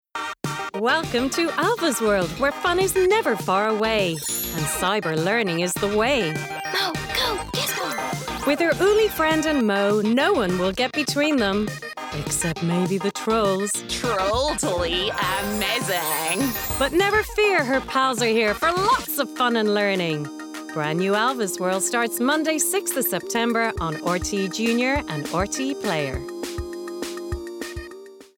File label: Promo